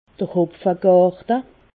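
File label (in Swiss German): "hopfegarte", Alsatian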